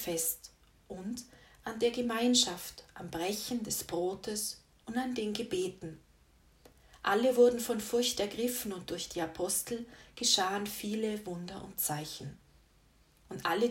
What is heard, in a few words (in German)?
Lesung